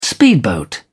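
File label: "speedboat", English, British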